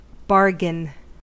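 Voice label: "bargain", US English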